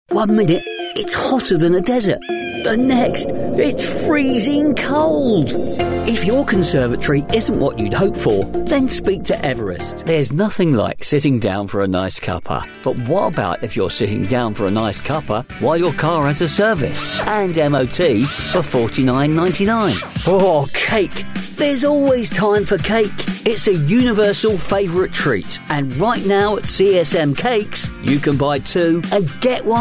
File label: commercial